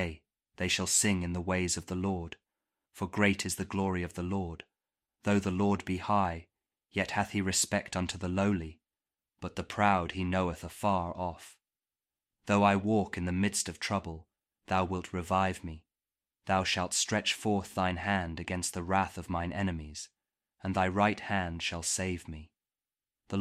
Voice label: psalm kjv king james audio bible; psalm 138